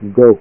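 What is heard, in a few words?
"go", Japanese kana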